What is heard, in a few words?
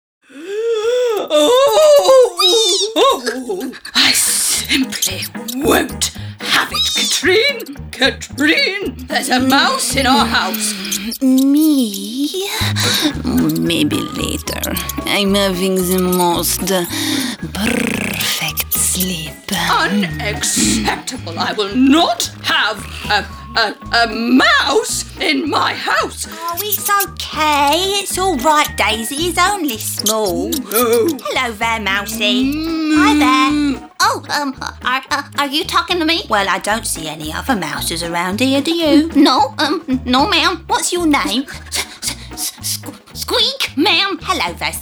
character